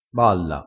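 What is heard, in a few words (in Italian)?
"balla", dialettale crespinese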